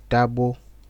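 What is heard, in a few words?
"dagbo", Nigerian Pidgin, male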